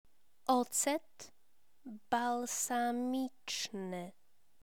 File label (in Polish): "ocet balsamiczny", Polish